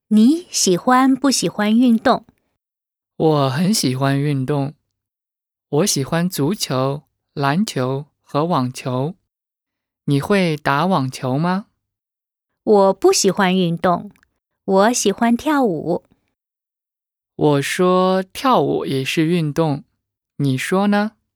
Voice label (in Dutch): dialoog